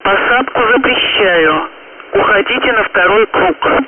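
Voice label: Speech, Russian, air traffic control; go around runway occupied; DCS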